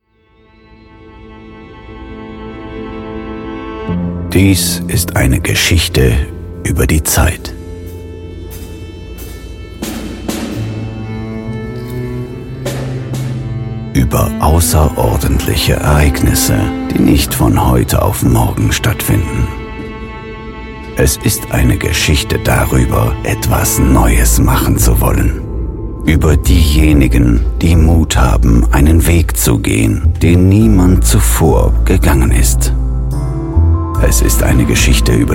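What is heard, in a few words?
male German voice over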